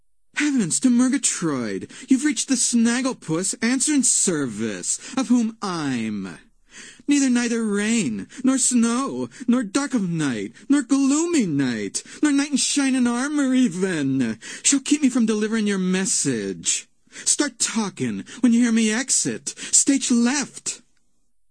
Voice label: answering machine message; cartoon sound fx; snagglepuss; hannah barbera cartoon sound fx